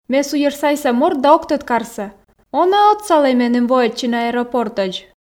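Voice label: Komi, female; ме суйӧрсайса морт да ог тӧд карсӧ он-ӧ отсалӧй меным воӧдчыны аэропортӧдз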